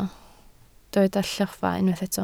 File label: "lloffa", Welsh